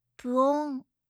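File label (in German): "phuong", japanische Mädchennamen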